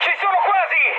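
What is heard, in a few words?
Italian near waypoint mood high, speech; War Thunder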